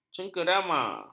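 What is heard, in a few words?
"cengkerama", Malay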